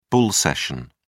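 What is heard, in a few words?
"bull session", British English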